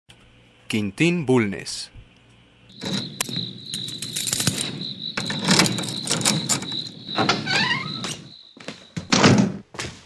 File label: Commercial, Male voices